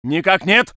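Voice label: voice soviet infantry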